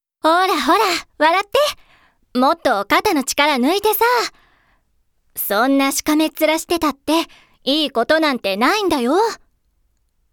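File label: high school girl, Japanese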